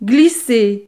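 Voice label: "glisser", French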